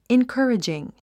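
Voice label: "encouraging", English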